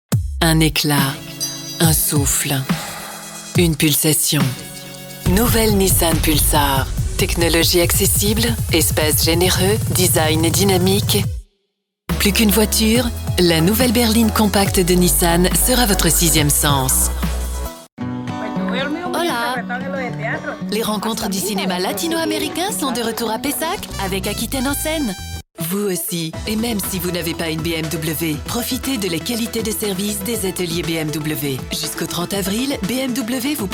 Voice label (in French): voix pubs radio